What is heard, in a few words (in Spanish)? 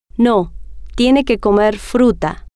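no Teine Que Comer Fruta, spanish, female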